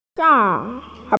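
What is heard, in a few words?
"shang4", Mandarin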